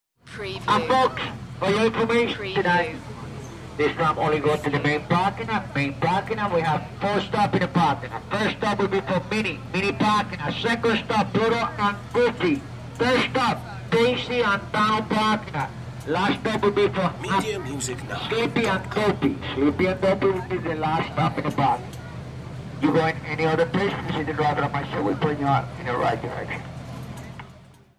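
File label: Shuttle Announcement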